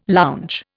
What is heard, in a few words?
"lounge", Indian